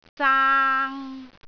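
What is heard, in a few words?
"zaang3", Cantonese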